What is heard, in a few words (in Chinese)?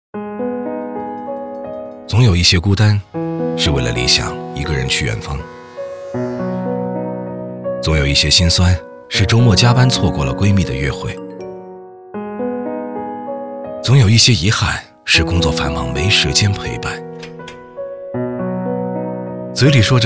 男, 旁白, 自然走心; 佳兆业